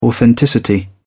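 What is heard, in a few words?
"authenticity", British English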